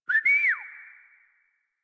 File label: Horse Start Adult; Warframe; Duviri